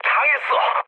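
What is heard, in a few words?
Korean aircraft destroyed mood medium; War Thunder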